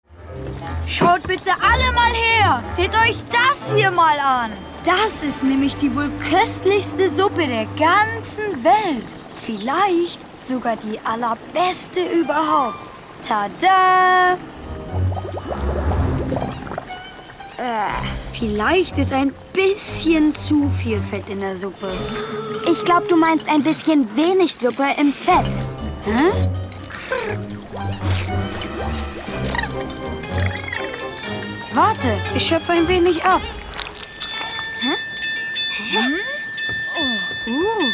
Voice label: Fremdtake Dynamisch

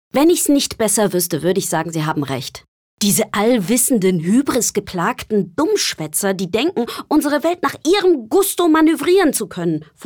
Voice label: Energisch; Dummschwaetzer

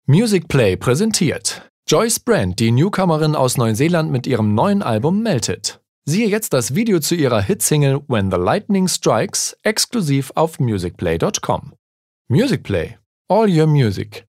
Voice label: Music Play Freundlich

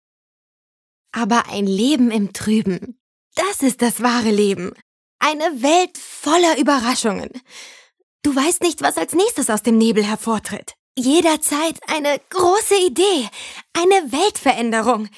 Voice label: Klarheit Dynamisch

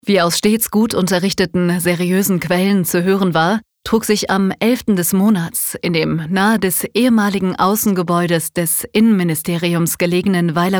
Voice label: Trocken Neutral

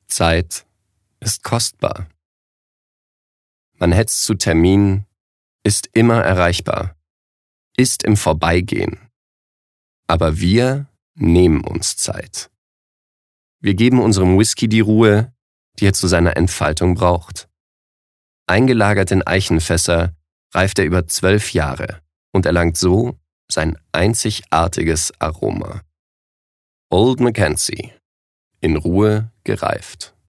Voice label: Sanft

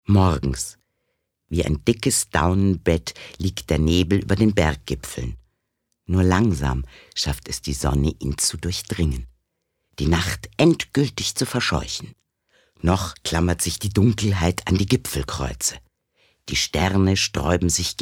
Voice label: Schauspiel Variabel

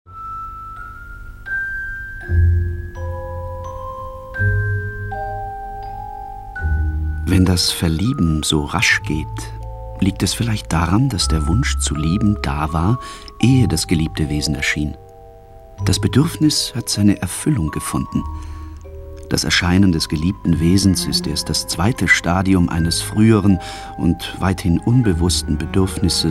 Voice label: Schauspiel, Neutral

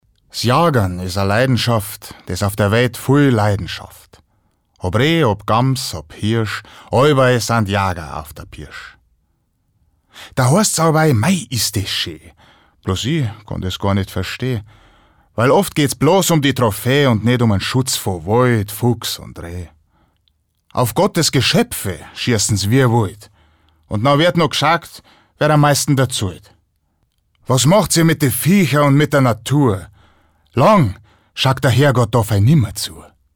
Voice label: Dialekt Bayerisch

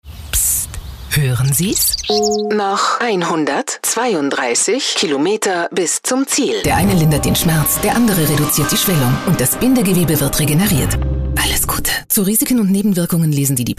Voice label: Werbung Variabel

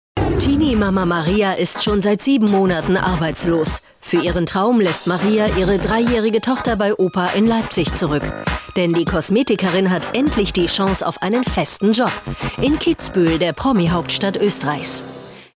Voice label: Neutral

